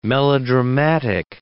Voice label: "melodramatic", English